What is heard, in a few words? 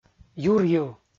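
"юрйыв", Komi, male; kpv audio dict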